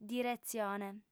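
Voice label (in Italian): "diretzione", lingua sarda, female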